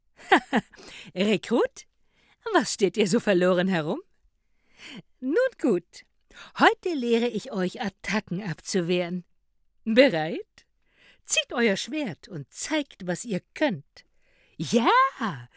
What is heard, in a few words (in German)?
PC-Spiel Hoerbeispiel Rolle Kampfmeisterin